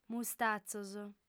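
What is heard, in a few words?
"mustatzos", Sardinian, female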